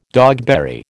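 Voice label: "dogberry", American English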